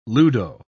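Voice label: "ludo", British English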